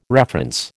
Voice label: "reference", American